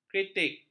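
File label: "kritik", Malay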